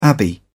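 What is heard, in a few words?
"abbey", British English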